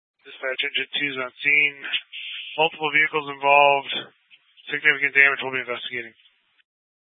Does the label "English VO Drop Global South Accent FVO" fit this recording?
No